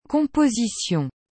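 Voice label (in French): "composition", French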